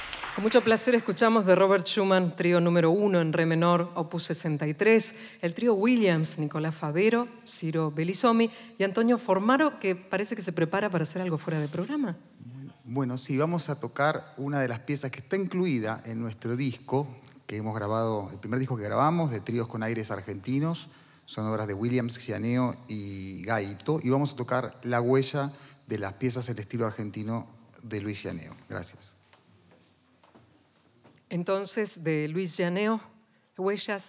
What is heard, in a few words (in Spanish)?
Palabras Locutora